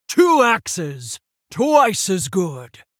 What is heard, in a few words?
Female Tauren Play